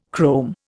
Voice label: "chrome", Indian

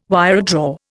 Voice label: "wiredraw", Indian

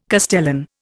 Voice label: "castellan", Indian

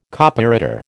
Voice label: "Copyreader", American

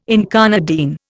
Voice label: "incarnadine", Indian

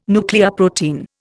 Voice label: "nucleoprotein", Indian

